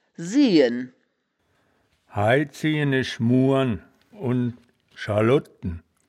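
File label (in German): "siehen", Wittlicher Wörterbuch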